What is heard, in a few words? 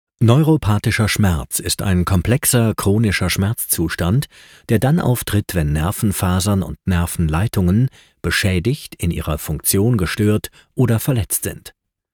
german e learning